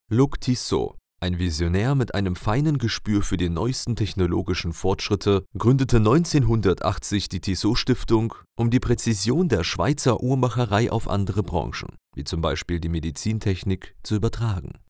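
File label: German, male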